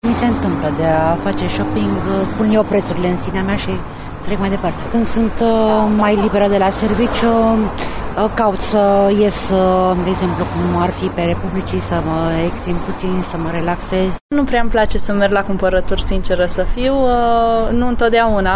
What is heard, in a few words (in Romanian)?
voxuri shopping; terapia prin cumparaturi